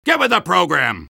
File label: soldier jeers; tf2